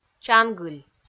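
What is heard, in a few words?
"чангыль", Komi, female; kpv audio dict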